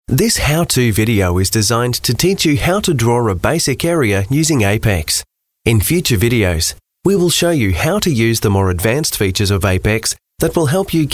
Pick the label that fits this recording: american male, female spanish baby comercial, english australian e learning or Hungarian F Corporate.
english australian e learning